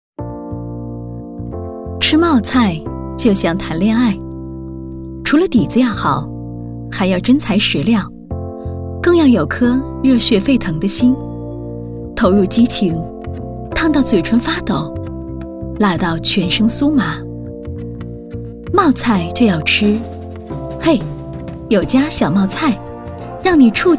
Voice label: 女 专题 产品 冒菜 稳重

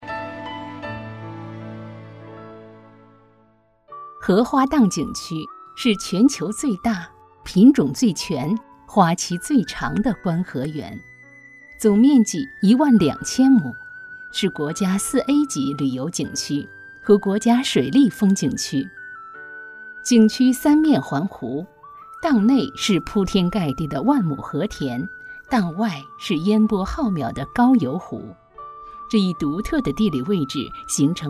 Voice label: 宣传片 旅游 荷花荡景区 讲述

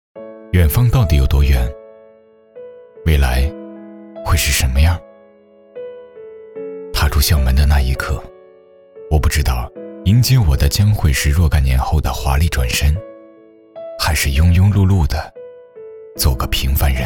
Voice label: 其他 旁白 唯美走心, 男; 大田美人

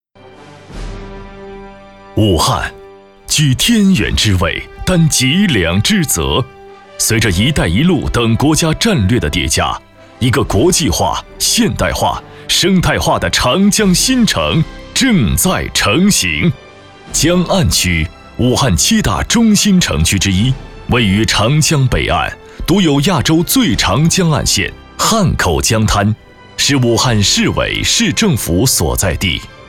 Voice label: 专题 政府 激情, 男国; 武汉江岸区

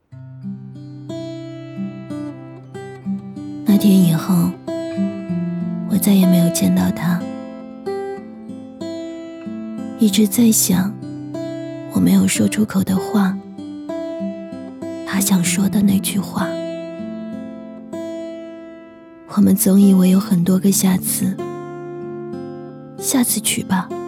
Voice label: 女 其他 微电影 女生暗恋

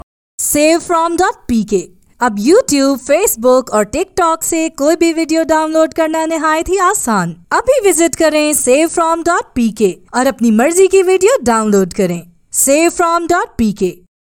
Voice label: 乌尔都语女 外语 小语种

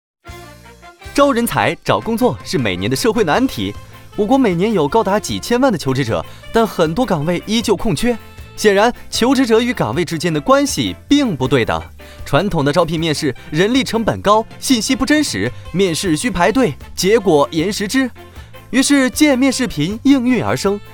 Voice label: 男国 广告 招聘 招聘广告轻松

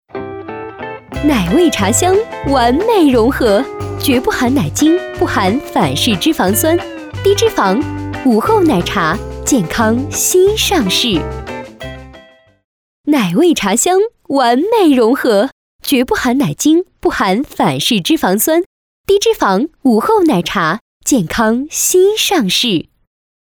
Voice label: 女 广告 食品饮料 奶茶 时尚

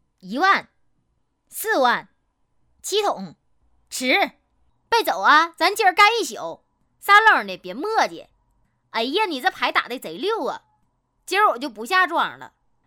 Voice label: 动画 游戏 东北话; 麻将

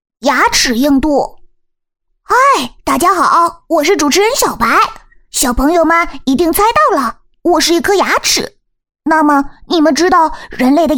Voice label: 动画 动画片 牙齿动画

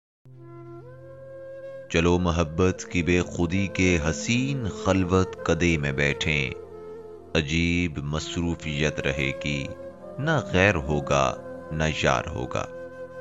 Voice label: 乌尔都语男 外语 小语种 低沉

